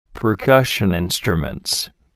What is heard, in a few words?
"percussion instruments", vocabulary